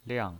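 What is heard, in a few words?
"liang", pinyin, Mandarin Chinese, fourth tone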